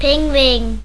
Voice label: "pinguin", nl; ktuberling; tdegames

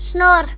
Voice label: "snor", nl; ktuberling; tdegames

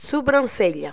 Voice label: "sobrancelha", pt; ktuberling; tdegames

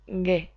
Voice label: "gue", es; klettres; tdeedu

